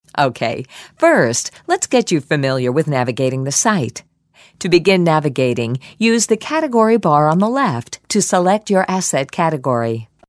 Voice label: us elearning

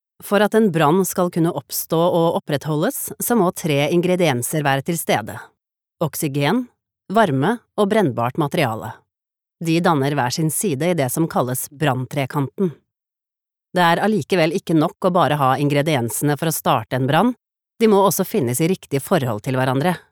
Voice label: no elearning, Norwegian; female

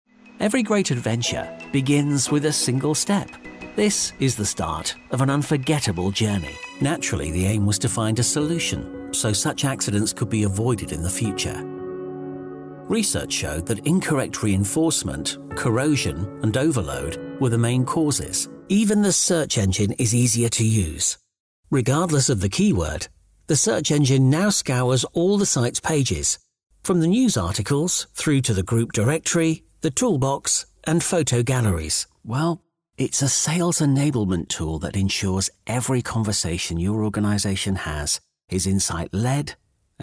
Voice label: uk corporate

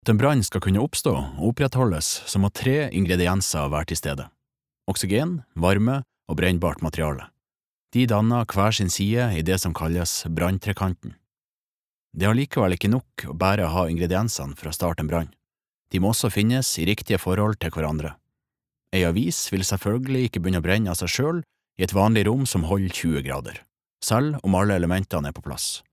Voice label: no nordnorsk bodo e-learning

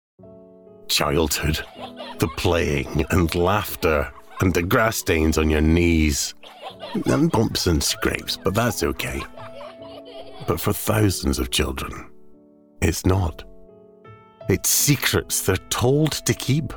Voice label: uk commercial

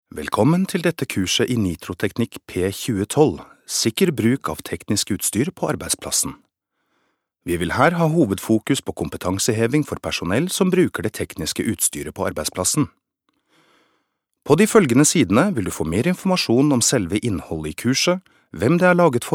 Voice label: no elearning

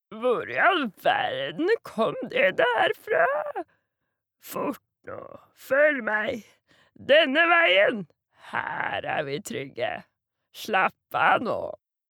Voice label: no dub, norwegian, female

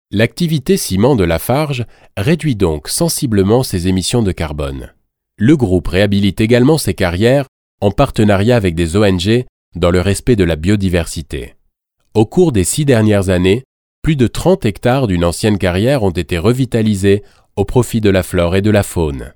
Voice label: fr informative, male, fransk

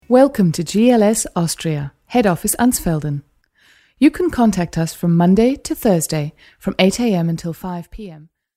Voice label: uk voicemail

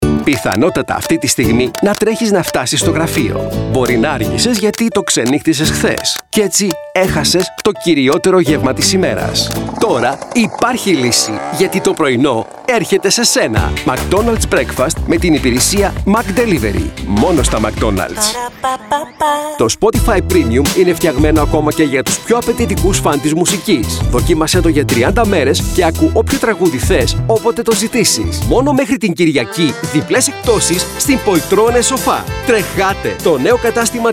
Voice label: gr commercial

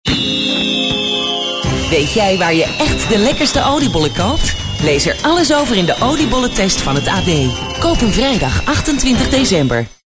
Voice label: nl commercial

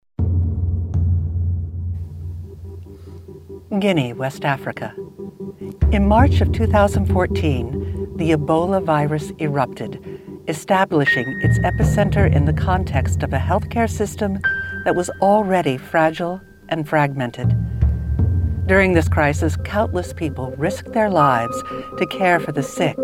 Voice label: us documentary

